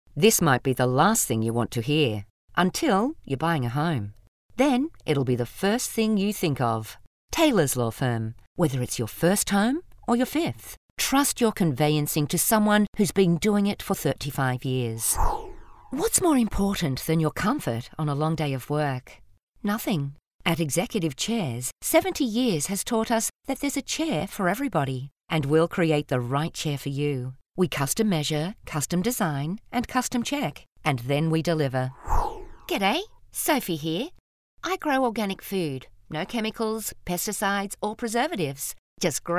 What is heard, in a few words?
Conversational